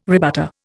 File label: "rebutter", Indian